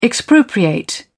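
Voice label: "expropriate", British English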